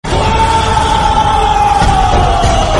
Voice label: Wow, Tamil Ringtone; ringtones; Kantara